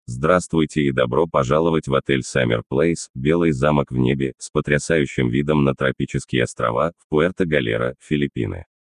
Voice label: Russian Welcome